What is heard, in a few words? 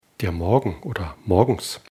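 "morgens", German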